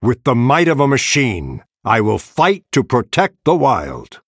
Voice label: Male Night Elf